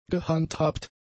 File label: "gehandhabt", German; adjectives